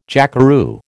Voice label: "jackeroo", American